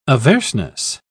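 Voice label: "averseness", English, British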